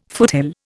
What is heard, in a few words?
"foothill", Indian